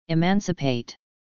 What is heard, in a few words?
"emancipate", English